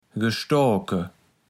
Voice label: "gestoake", kaevels platt

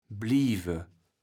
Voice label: "blieve", kaevels platt

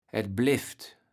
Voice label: "et bleft", kaevels platt

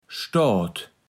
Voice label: "Stoat", platt

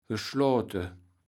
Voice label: "geschloate", kaevels platt

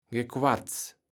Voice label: "Gequatz", Platt

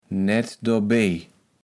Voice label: "naettdorbej", kaevels platt

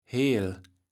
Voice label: "heel", kaevels platt

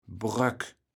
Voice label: "Bröck", kaevels platt

